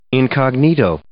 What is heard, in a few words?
"incognito", English